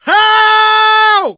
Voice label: help, survivor voice, manager; source engine; left 4 dead 2